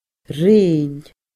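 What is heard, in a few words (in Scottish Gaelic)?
"ruighinn", Scottish Gaelic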